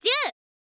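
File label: "zyuu", Japanese, girl; voice; info girl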